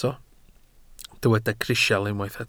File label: "crisial", welsh